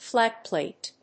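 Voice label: "Flat plate", English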